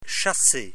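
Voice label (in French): "chasser", French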